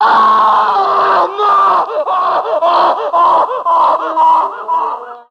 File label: "oh no", screams; Voice